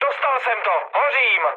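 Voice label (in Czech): Czech on fire, mood medium; speech; War Thunder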